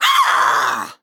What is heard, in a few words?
Female Harpy